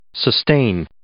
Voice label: "sustain", English